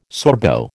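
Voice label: "Sorbo", American English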